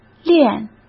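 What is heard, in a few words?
"炼", Mandarin Chinese; chinese flashcards; hsk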